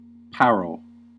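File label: "parol", US English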